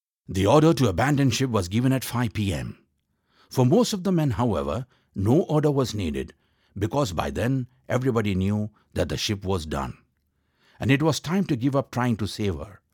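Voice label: audiobook, English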